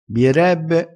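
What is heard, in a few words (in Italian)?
"bierebbe", dialettale crespinese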